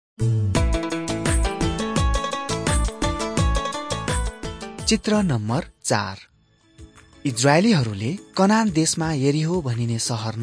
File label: victory through god nepali